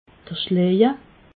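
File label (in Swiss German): "schleje", Alsatian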